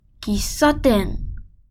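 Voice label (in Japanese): "喫茶店", Japanese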